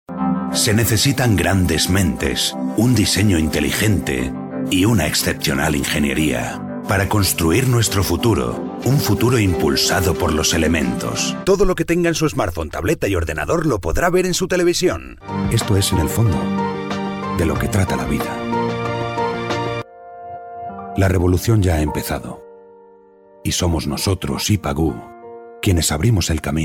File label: male, Spanish Castilian, corporate